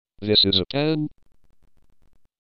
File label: truevoice question, synthesizer